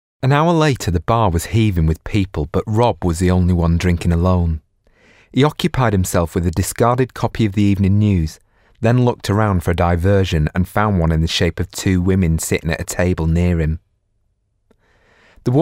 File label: character, ENGLISH UK MALE